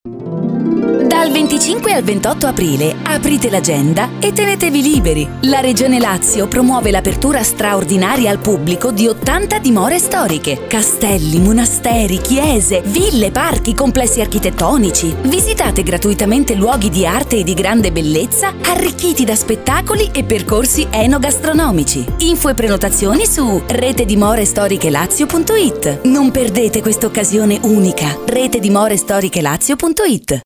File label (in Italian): Spot radio; Rete dimore storiche Lazio